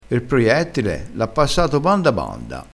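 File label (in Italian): "banda banda", vocabolario dialettale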